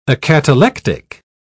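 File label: "acatalectic", English, British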